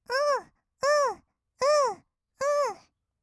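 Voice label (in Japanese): "うーうー", Japanese, kawaii voice; 合いの手; 1200 kawaii voice!!; ASMR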